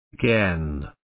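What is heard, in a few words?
"again", British English, male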